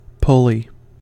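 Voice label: "pulley", US English; pronunciations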